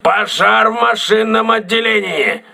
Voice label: voice message engine room damaged, crew ships russian